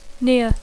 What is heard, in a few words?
"near", English; adjectiu; prop